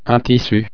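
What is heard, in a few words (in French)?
"tissu", French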